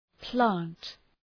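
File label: "plant", English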